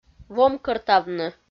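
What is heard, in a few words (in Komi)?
"вомкӧртавны", Komi, female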